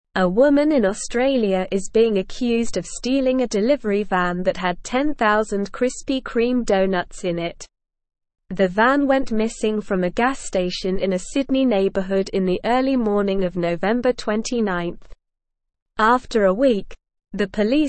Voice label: English Upper Intermediate SLOW Reading; English Newsroom; Woman Charged with Stealing Krispy Kreme Delivery V